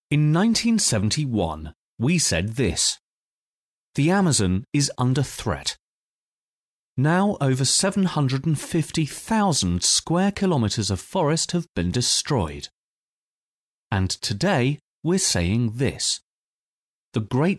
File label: serious